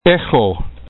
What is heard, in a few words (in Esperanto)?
"ehxo", Esperanto